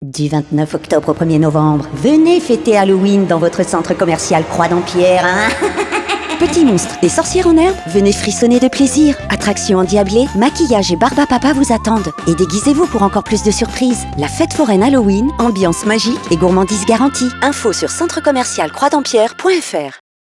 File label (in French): spot radio Halloween; Croix Dampierre